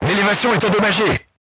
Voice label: voice message vertical aiming drive damaged, crew tanks french